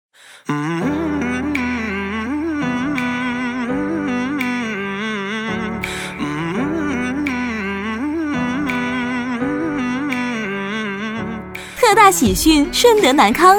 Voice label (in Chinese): 女, 促销广告, 家居, 热情活泼; 轻松活泼; 好百年